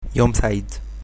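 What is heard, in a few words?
have a nice day, Egyptian Arabic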